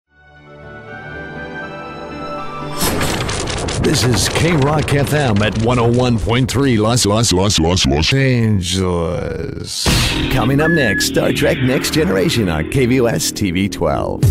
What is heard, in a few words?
canadian promo